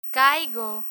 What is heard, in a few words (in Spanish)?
"caigo", Spanish; irregular spanish verbs; learn spanish